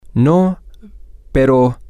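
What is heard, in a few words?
Spanish, male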